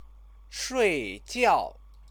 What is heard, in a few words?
"shuijiao", Chinese